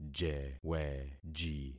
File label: "jeweji", concat syllables; Affixing experiment